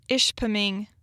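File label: "Ishpeming", Michigan Pronunciations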